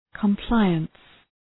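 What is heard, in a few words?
"compliance", English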